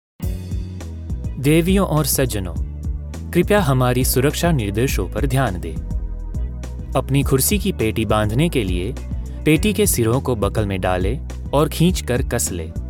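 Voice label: Gujarati General; GoLocalise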